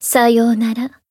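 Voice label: Voice finish, Japanese; toova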